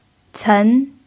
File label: "cen2", chinese